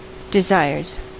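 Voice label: "desires", American English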